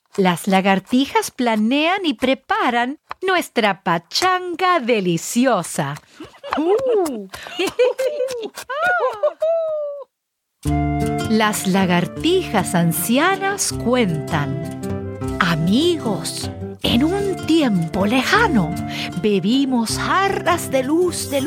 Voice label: Child Audiobooks Spanish